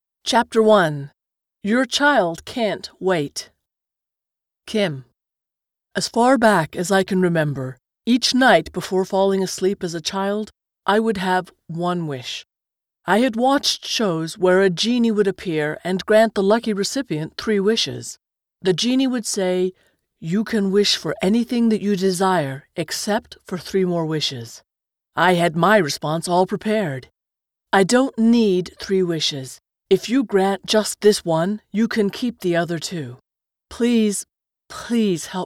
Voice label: Audio books American; Voice-over demos; USA